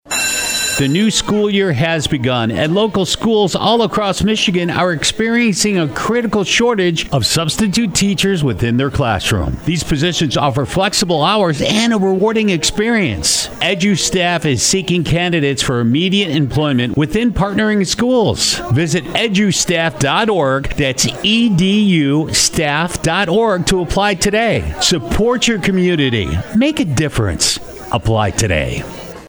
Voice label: radio ad; edustaff; human resources